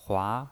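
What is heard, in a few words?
"hua", second tone, pinyin voice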